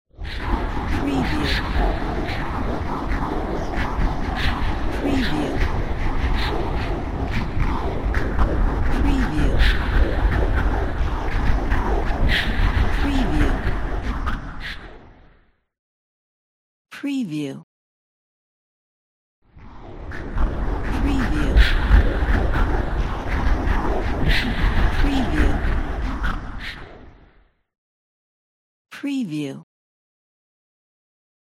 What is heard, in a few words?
voice from hell